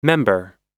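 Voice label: "member", American English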